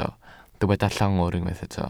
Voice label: "llongwr", Welsh

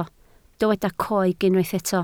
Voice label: "coeg", Welsh

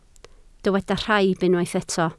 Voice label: "rhaib", Welsh

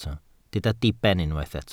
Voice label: "diben", Welsh

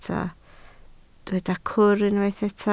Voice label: "cwr" Welsh

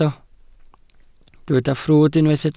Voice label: "ffrwd" Welsh

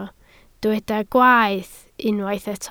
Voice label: "gwaeth" Welsh

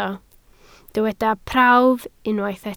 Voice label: "prawf" Welsh

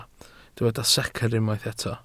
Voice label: "secr" Welsh

